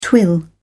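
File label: "twill", English, British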